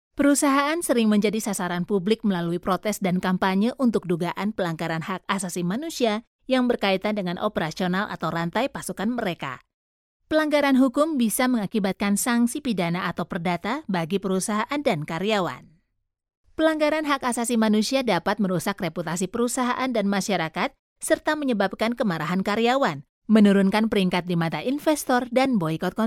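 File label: Indonesian, General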